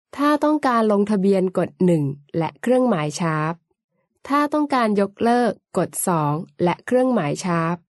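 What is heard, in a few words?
Thai, female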